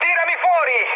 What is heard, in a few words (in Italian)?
bailout, mood med, speech it; War Thunder